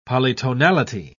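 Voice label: "polytonality", British English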